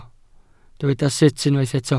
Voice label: "sut", Welsh

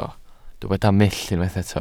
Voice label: "mull", Welsh

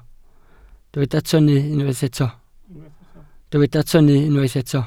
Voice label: "tynnu", Welsh

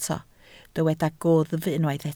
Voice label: "gwddf", Welsh

